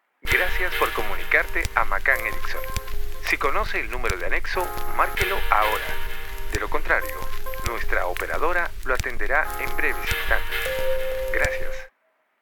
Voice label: Spanish Old Time Radio Ad